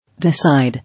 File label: "Decide", English